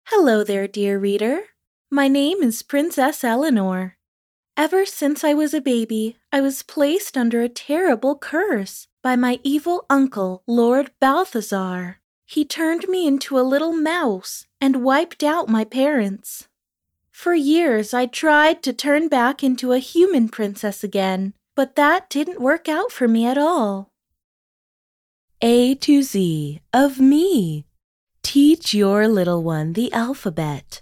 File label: Children